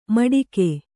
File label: "ಮಟಿಕೆ", Kannada